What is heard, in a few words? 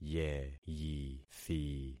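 "yeyithi", concatenated syllables; Affixing experiment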